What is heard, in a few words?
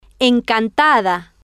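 "encantada", Spanish, female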